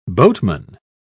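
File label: "boatman", British English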